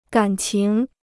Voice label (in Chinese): "敢情", Chinese